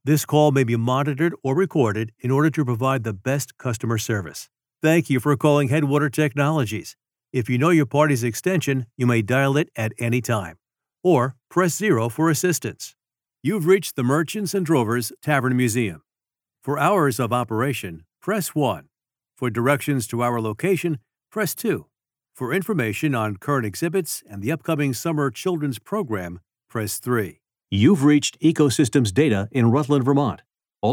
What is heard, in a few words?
Phone System